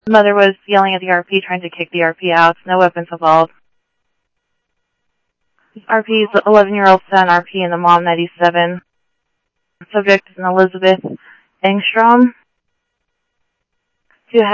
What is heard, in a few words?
PD Police, scanner audio; ThompsonPeakHigh; SCTSDLE PD - PD2 ScottsdalePolice